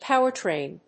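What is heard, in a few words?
"power train", English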